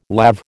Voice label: "lav", American

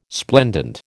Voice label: "splendent", American

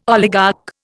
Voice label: "oligarch", Indian

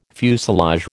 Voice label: "fuselage", American

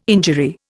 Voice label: "injury", Indian

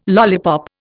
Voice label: "lollipop", Indian

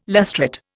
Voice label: "lustrate", Indian

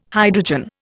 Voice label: "hydrogen", Indian

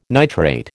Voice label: "nitrate", American

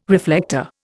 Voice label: "reflector", Indian

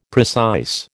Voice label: "precise", American

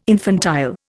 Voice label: "infantile", Indian

